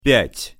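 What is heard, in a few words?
"pjat", Russian pronunciation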